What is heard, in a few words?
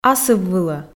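"асыввылӧ", Komi, female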